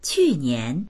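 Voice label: "qu4nian2", Mandarin Chinese; 去年; 去年、昨年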